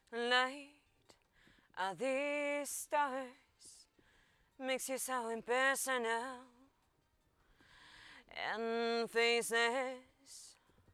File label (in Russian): Zhenskiy vokal. Petlya superkardioida, zakreplena na odezhde; OWS U1200DL